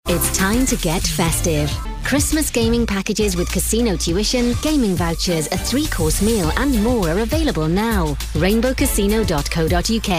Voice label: commercial